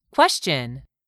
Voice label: "question", English; 3000 words

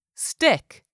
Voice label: "stick", English; 3000 words

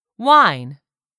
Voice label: "WINE", English; 3000 words

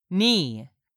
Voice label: "KNEE", english; 3000 words

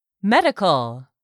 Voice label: "medical", English; 3000 words

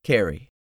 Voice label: "carry", english; 3000 words

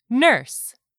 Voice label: "NURSE", English; 3000 words

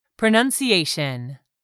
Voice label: "pronunciation", English; 3000 words

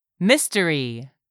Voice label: "mystery", English; 3000 words

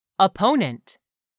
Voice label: "opponent", English; 3000 words

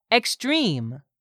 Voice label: "extreme", English; 3000 words

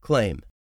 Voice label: "claim", english; 3000 words

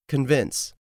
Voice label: "convince", English; 3000 words

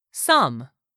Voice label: "sum", English; 3000 words

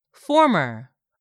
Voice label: "former", English; 3000 words

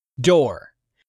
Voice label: "door", English; 3000 words

